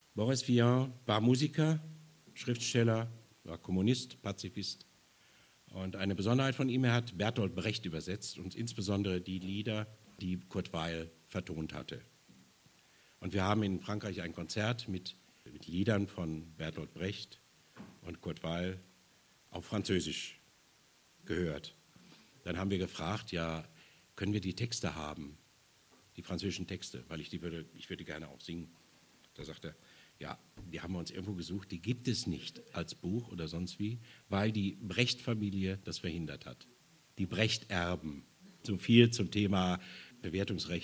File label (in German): ansage